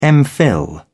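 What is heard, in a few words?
"mphil", British English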